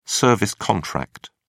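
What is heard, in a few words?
"service contract", British English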